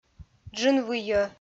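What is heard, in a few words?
"джынвыйӧ", Komi, female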